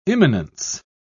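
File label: "immanence", British English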